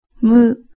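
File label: "mu", Japanese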